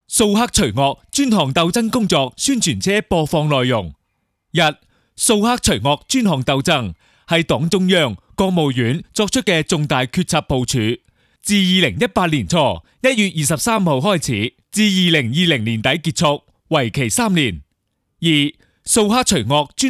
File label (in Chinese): 男 扫黑除恶专项斗争工作宣传粤语录音